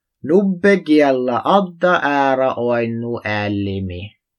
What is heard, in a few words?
North Saami